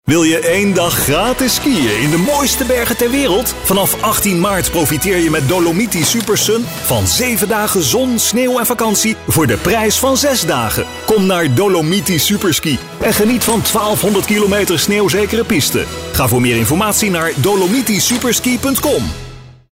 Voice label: hard sell; Dolomiti

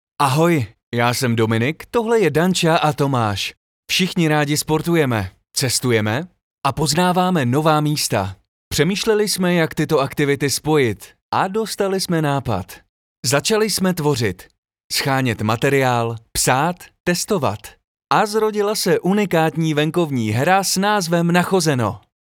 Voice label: Promotional

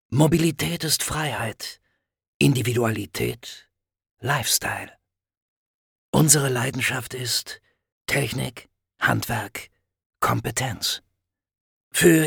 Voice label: GER commercial cars

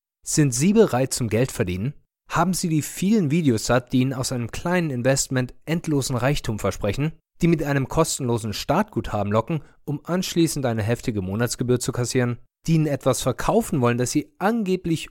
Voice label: Website Promo Call To Action

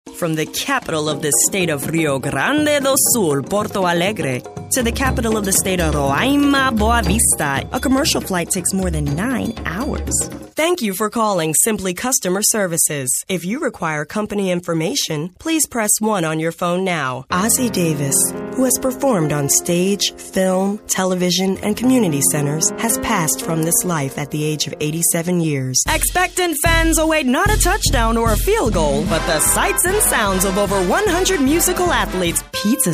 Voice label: American English Narrative

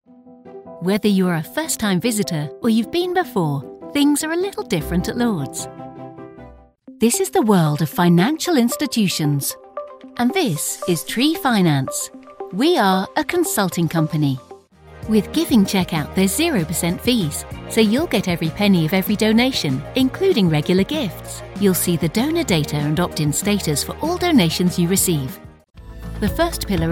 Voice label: Corporate

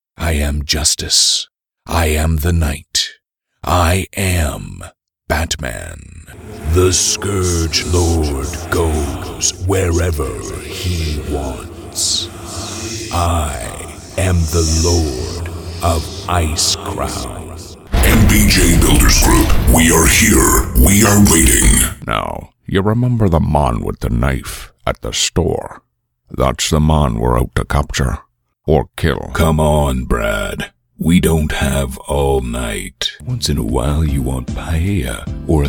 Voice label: CHARACTER

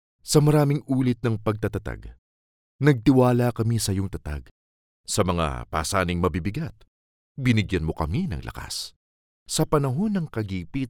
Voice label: TAGALOG MVO

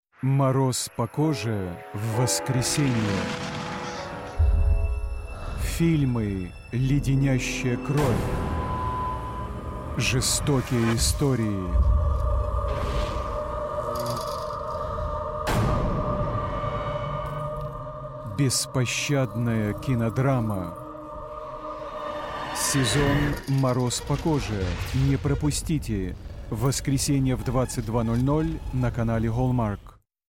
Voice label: Russian; Chillers; Hallmark Channel

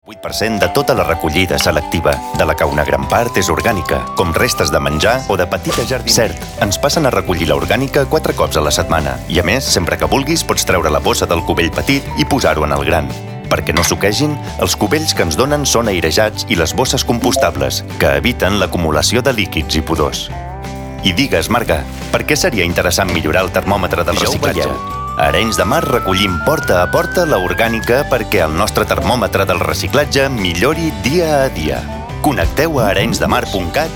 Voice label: catalan PROMOTIONAL

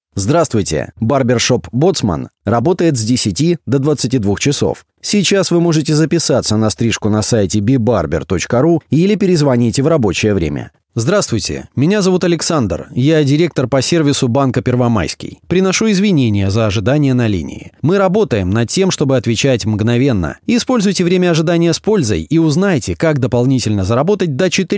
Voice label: IVR

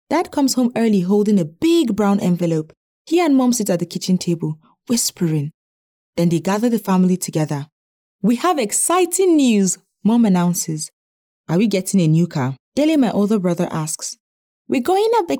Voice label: Audiobook E Learning

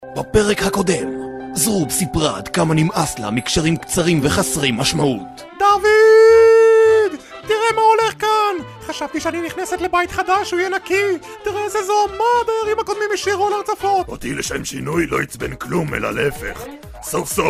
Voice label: hebrew cartoon animation

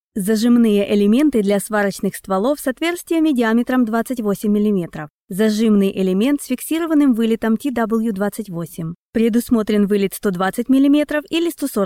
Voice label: Russian voice over for cinematographer showreel

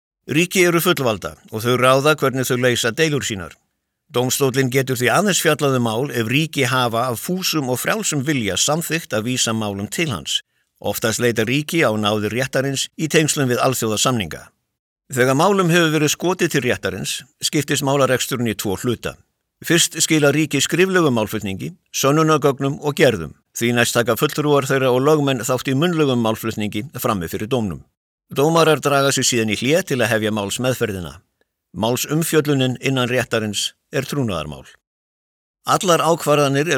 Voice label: eLearning Icelandic

